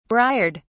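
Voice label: "briard", English, British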